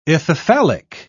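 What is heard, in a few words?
"ithyphallic", British English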